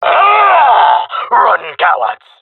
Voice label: round winning, male; jungle; Counter Strike Model Pack; CS:GO

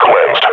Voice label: announce kill antlion, combine grunt; Half Life Model Pack

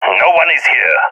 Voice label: sees area clear, balkan; vo; balkan epic

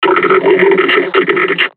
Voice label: taunt, combine charger; Half Life Alyx

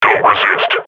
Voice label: firing, combine grunt; Half Life Model Pack

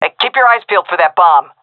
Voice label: hear bomb, vo fem; agents; Source Model Packs; Counter Strike Model Pack; CS2

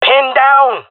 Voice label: pinned, male; player vo; Counter Strike Model Pack; CS:GO

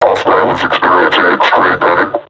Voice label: taunt, vo combine suppressor; Half Life Alyx

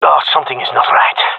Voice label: scared, gendarmerie male; vo

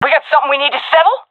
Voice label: taking fire friendly, seal fem; Counter Strike Model Pack; CS:GO

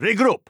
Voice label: radio bot regroup; Counter Strike; CS:GO; phoenix coop

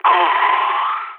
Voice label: death, balkan; vo

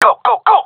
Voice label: request move, gendarmerie male; vo

